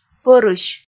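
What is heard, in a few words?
"пӧрысь", Komi, female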